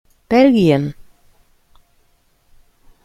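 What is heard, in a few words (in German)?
"belgien", German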